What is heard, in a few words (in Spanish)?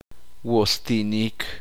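hígado, uspanteko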